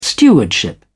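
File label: "stewardship", British English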